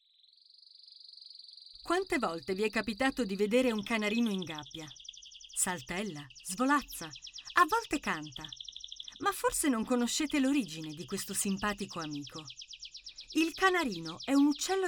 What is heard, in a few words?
Italian